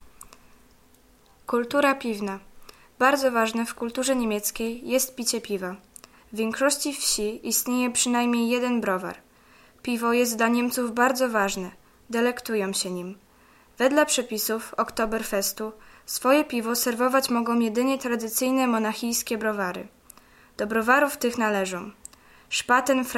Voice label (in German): Bier polnisch